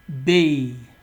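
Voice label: "bae", Nigerian Pidgin, male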